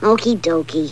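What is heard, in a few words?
"Okie Dokey", spoken; dataThief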